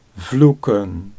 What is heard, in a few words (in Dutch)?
"vloeken", Dutch